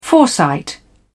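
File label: "foresight", British English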